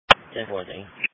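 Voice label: Police Patrol (Dispatch)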